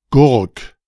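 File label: "Gurk", German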